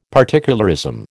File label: "particularism", American